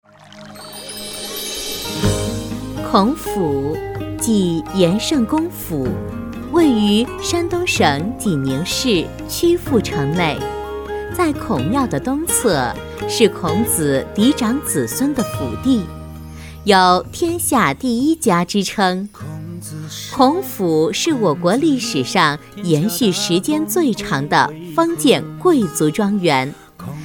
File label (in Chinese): 女 甜美亲和; 孔府